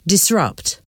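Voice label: "disrupt", English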